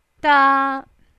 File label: "daa1", cantonese